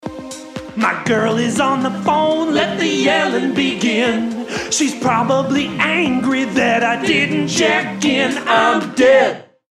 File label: Girlfriend Yelling